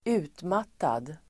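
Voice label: "utmattad", Swedish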